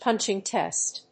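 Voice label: "punching test", English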